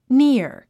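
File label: "near", English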